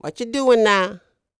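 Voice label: dialog, female spoken; ILIO; Vocal Planet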